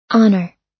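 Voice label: "honour", US English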